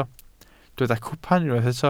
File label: "cwpan", Welsh